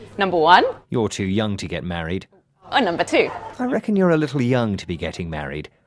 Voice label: polite request